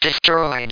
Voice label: destroyed (female); Space Spartans